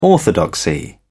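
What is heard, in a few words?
"orthodoxy", British English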